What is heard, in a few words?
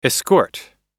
"escort", american pronunciation verb